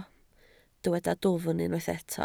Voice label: "dwfn", Welsh

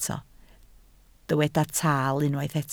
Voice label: "taal", Welsh

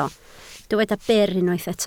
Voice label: "byr", Welsh

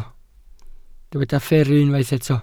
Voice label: "fferru", Welsh

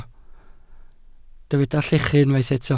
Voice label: "llechu", Welsh

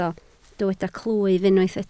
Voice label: "clwyf", Welsh

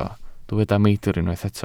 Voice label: "meidr", Welsh

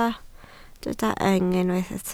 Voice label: "yng", Welsh

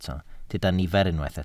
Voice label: "nifer", Welsh

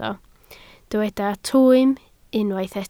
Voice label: "twym", Welsh

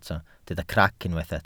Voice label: "crac", welsh

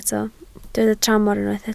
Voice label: "tramor", Welsh

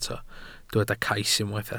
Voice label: "cais", welsh

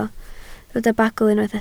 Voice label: "bagl", Welsh

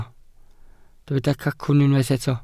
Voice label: "cacwn", Welsh

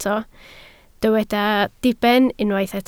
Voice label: "diben", Welsh

